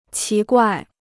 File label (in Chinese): "奇怪", Chinese